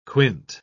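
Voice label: "quint", English, uk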